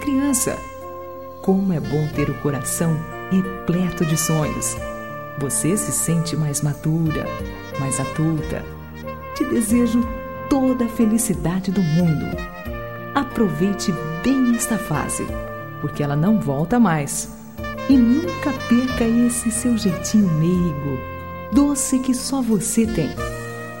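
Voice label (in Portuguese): Aniversário de 15 Anos, female, Amiga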